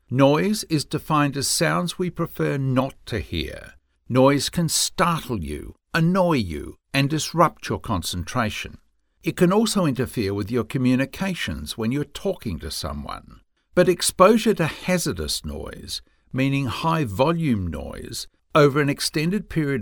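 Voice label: Australian English, male; voice over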